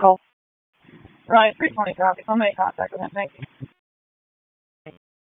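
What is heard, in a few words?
Raleigh Police Northeast District Dispatch, p25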